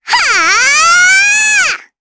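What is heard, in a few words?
Vox Casting Japanese; Estelle; kingsraid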